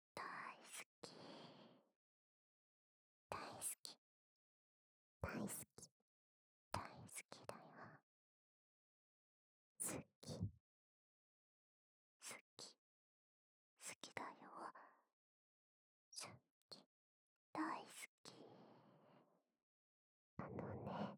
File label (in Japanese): 囁き 大好きだよ; 3人の『大好き』『愛してる』